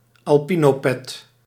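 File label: "alpinopet", Dutch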